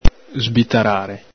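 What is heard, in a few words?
"sbitarare", Veneto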